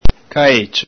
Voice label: "caecio", Veneto

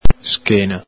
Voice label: "schena", Veneto

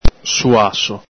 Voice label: "suaso", Veneto

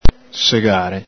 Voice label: "segare", Veneto

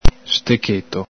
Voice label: "stecheto", Veneto

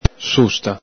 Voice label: "susta", Veneto

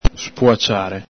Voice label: "spuaciare", Veneto

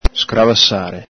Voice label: "scravasare", Veneto

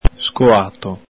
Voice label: "scoato", Veneto

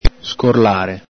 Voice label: "scorlare", Veneto

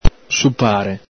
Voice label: "supare", Veneto

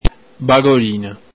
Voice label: "bagolina", Veneto

